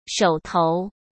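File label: "手头", Mandarin Chinese; chinese flashcards; hsk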